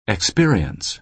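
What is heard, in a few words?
"experience", American English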